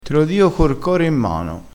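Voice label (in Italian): "cor", dialettale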